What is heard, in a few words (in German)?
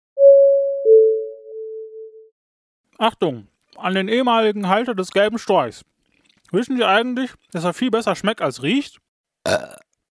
durchsage